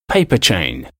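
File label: "paper chain", English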